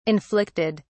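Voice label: "inflicted", British English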